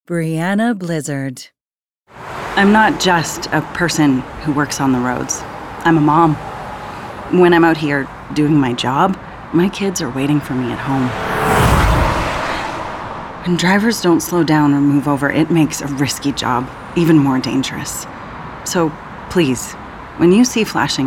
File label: Commercial